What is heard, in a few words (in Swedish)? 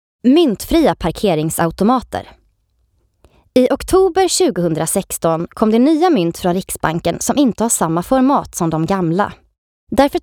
Informativ; Mynt